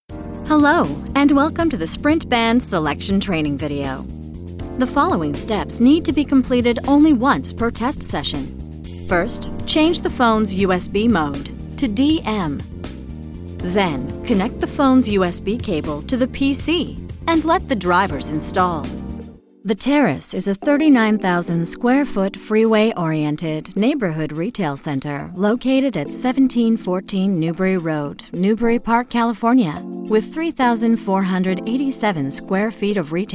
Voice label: Corporate Narration